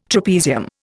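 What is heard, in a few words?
"trapezium", Indian English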